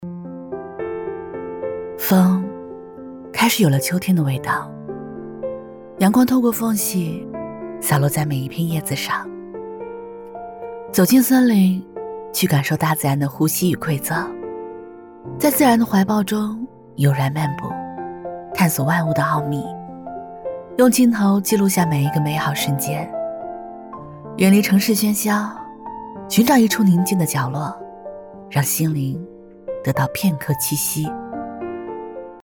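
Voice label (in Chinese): 女国大音-旁白; 秋天的味道; 文旅配音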